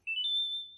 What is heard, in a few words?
pleased emote; animal crossing